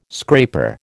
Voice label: "scraper", American